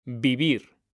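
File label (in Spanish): "vivir", Spanish; mekanta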